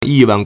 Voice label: "yi4 wan4", Chinese

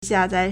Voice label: "xia4zai4", Chinese

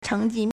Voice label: "cheng2ji4", Chinese

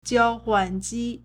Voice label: "jiao1 huan4 ji1", Chinese

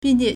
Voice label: "bing4qie3", Chinese

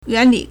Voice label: "yuan2li3", Chinese

